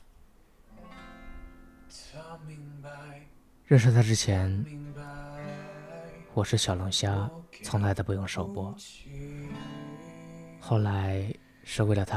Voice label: 情感类

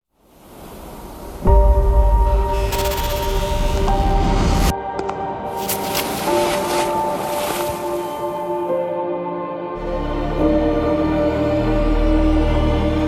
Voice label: 企业宣传片; 企业宣传片南京磁谷科技样片片段

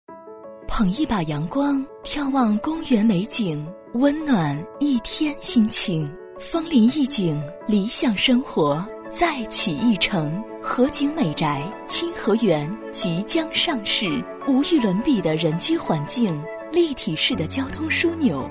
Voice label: 广告样音房产

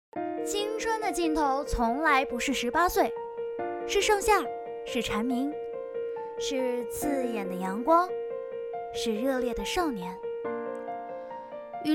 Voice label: 青春少女

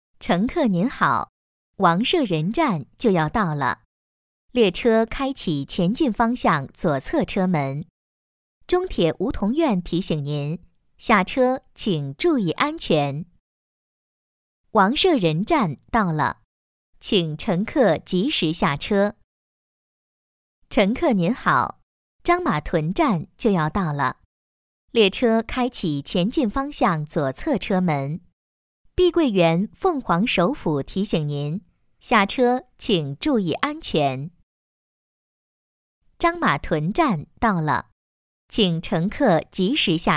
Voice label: 地铁报站; 济南号线

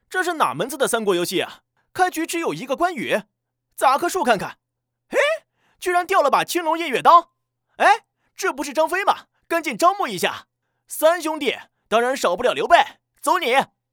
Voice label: 年轻搞笑游戏解说

